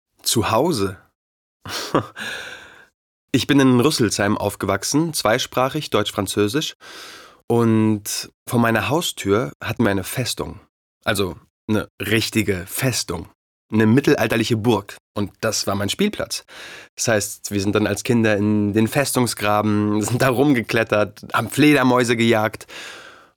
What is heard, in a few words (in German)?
Natuerliche Stimme